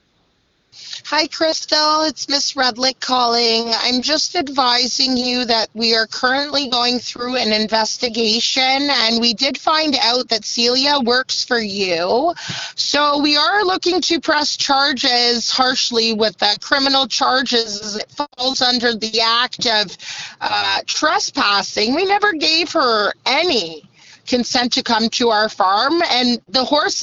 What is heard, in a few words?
voicemail